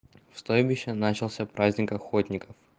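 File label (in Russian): В стойбище начался празник, русский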